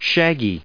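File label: "shaggy", US English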